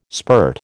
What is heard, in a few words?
"spirt", American